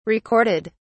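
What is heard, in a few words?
"recorded", British English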